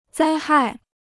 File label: "灾害", Chinese